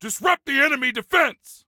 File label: Attack Disrupt, Soldier Male; Soldier Male 03